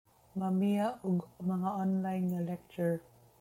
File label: Cebuano